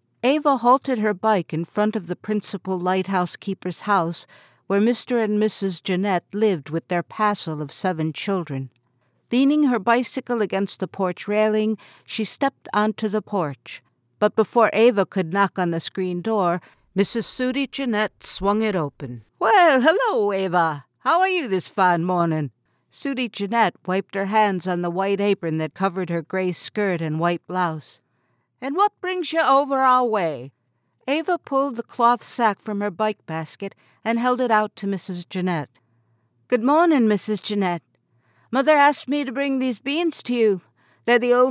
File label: audiobook